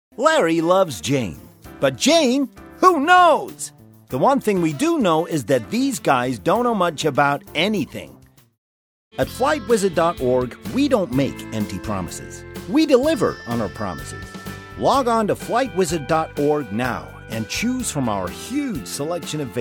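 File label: American TV commercial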